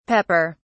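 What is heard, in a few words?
"pepper(s)", British English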